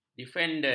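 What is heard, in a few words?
"defenden", Malay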